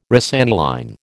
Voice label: "rosaniline", American